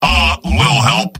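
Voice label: help me, robot; mvm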